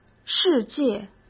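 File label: "世界", Mandarin Chinese; hsk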